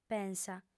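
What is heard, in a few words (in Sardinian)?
"pensa", female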